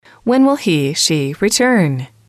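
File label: when will he she return, English, female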